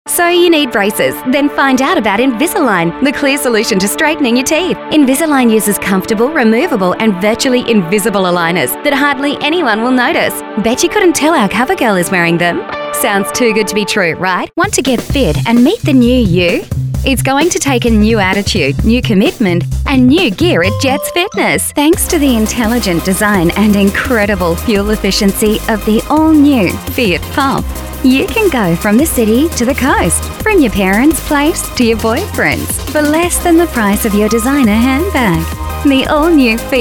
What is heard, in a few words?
female talent retail